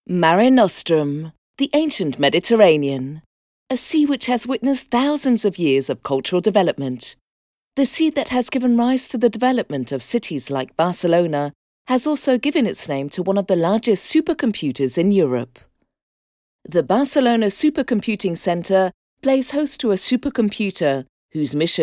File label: english uk female generic